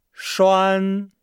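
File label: "shuan", Chinese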